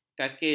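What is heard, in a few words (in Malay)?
"kakis", Malay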